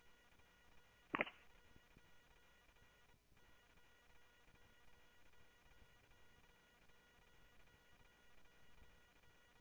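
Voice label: Lakes Sheriff Lake Patrol, scanner audio trunk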